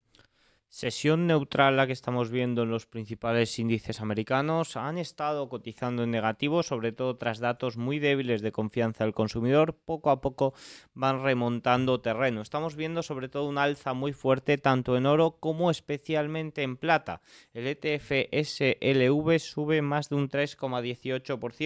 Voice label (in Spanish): radio; noticias bolsa